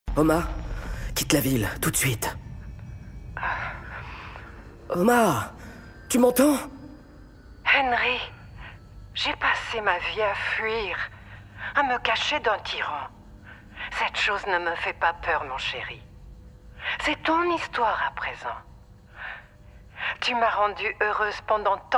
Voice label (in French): Fiction radio Podcast Accent Allemand; Jack Burn et l'heritier de l'arc